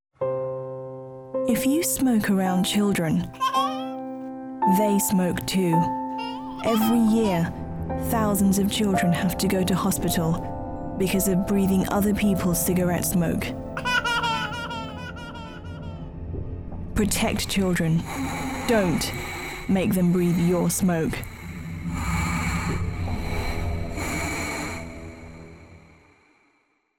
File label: kids smoking infomercial